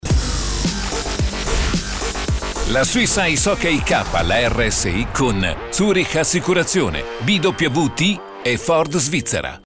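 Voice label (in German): Werbung, Italian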